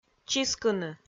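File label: "чискыны", Komi-Zyrian, female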